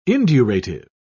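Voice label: "indurative", British English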